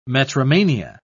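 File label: "metromania", English, British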